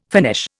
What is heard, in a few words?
"Finnish", Indian